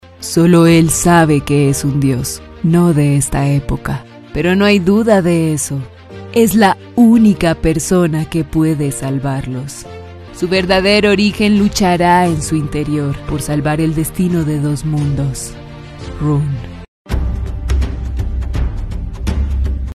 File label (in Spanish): locución videojuego